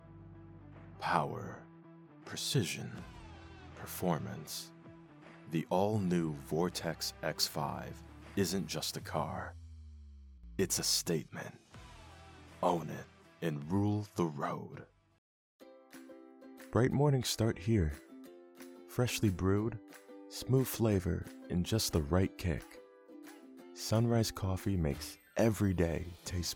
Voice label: Commercial